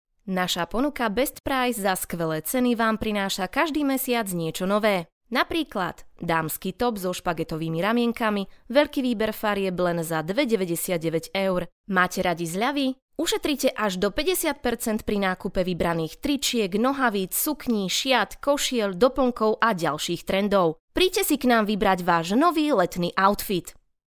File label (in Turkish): Slovakca Kadin, seslendirme; kadin sesler